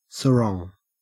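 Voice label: "sarong", Australian English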